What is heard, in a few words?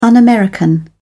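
"un american", British English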